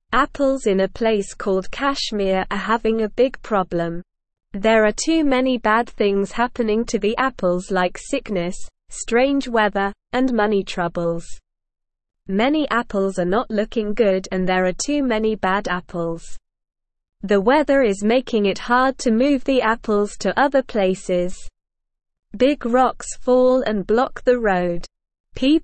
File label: Beginner SLOW Reading, English; Troubles for Apples in Kashmir Bad Weather Money Woes